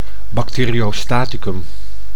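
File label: "bacteriostaticum", Dutch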